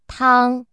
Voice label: "tang", speech